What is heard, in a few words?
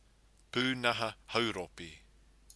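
"punaha hauropi", Māori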